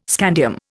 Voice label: "scandium", Indian